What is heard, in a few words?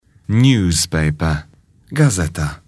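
"newspaper", English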